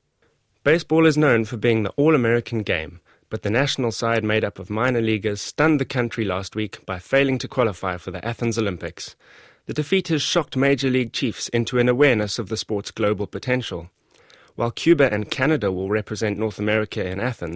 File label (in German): Suedafrica M1, voiceactors